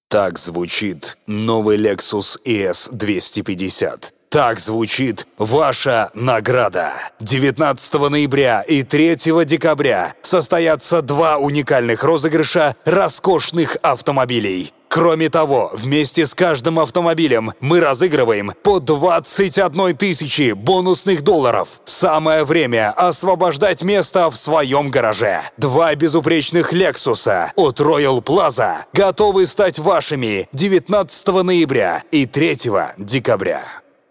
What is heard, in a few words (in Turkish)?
Rusca Erkek, seslendirme